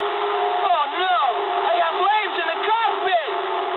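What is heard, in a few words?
English on fire mood med; speech; War Thunder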